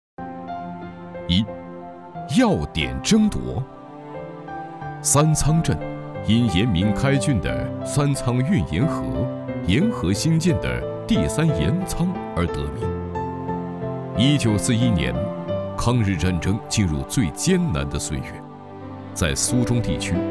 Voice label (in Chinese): 男 宣传片; 三仓镇